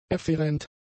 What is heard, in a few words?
"efferent", german; adjectives